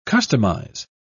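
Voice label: "customise", British English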